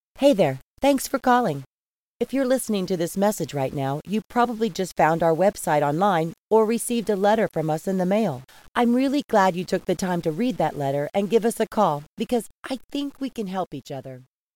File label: voicemail script female